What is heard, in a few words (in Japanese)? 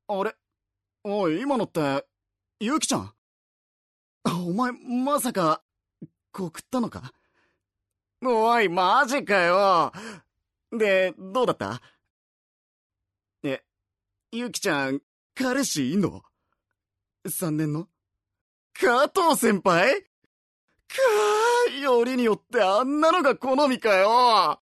アニメ